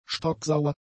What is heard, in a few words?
"stocksauer", German; adjectives